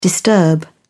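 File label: "disturb", British English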